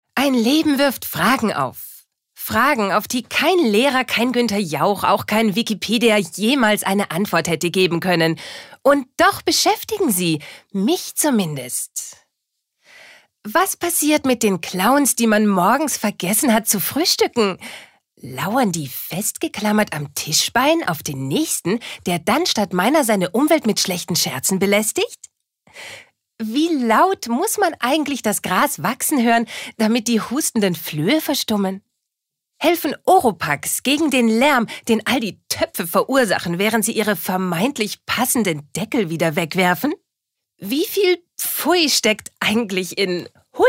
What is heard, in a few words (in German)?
Schauspiel Dynamisch